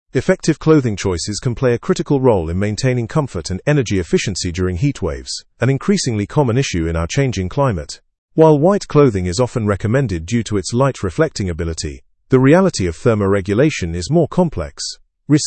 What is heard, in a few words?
learn english through news advanced fast; optimizing clothing choices for heatwave comfort